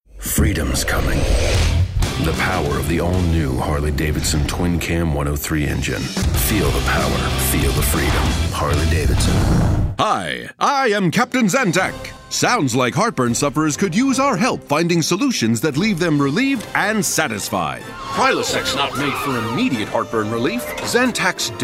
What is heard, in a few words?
VO reel wry rugged strong high energy; Voice Over reel 4; Video Game & Animation reel